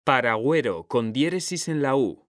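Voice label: "paragüero", Spanish; mekanta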